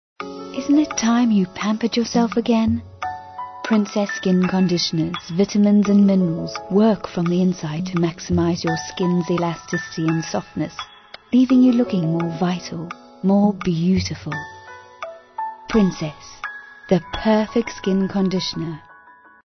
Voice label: Soft Sell